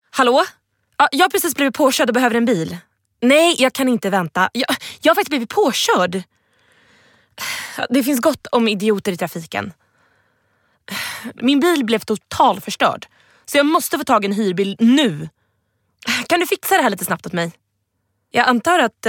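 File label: Swedish, female, karaktar; character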